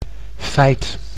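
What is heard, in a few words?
"feit", Dutch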